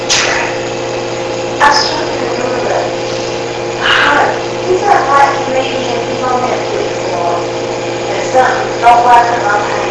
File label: Basement Unexplained Female Voice Amplified; Hi or Heart